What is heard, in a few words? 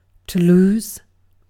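"lose", British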